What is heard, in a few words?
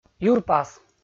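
"юрпас", Komi, male; kpv audio dict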